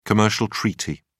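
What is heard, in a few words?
"commercial treaty", English, British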